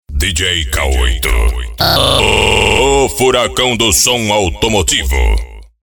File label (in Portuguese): vinheta; rock anos 80 a 2000 especial dj k8